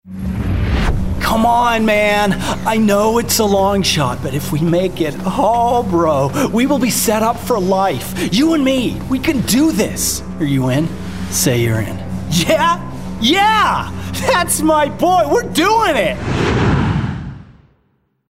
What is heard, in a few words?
Gaming Dude US